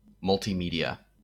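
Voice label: "multimedia", US English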